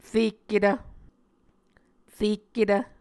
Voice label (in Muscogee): "rekketv", Muscogee; Mvskoke Words with Repeated Consonants; Onvkv Ohhvyayices; A Dictionary of Creek/Muskogee; SIL International